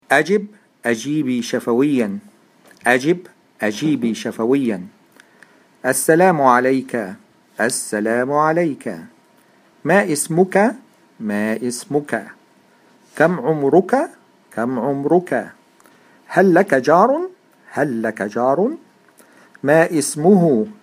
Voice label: Pronounce, Arabic